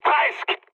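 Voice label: bomb failure, mood high, French; War Thunder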